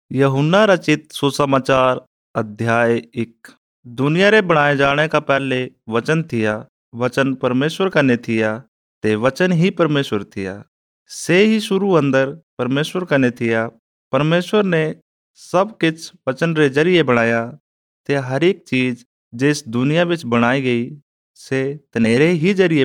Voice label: Chambyali, Chambyali Audio Bible; John Ch1 After Training